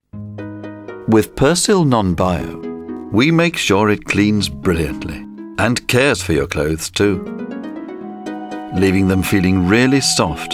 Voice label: ad; Persil Non-Bio; friendly ad

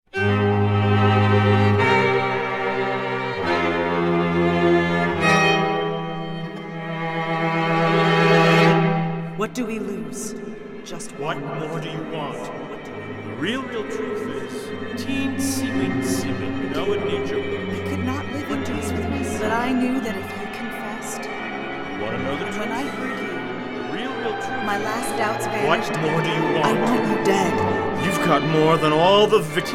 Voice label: Voice Over Collage

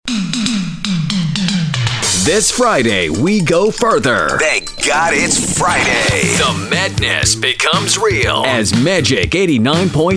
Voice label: Radio Voice Over; MAGIC MIXES

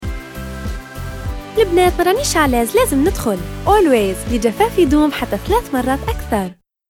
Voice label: Algerian VO

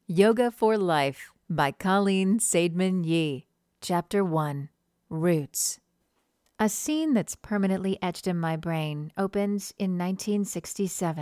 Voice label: audiobook sample

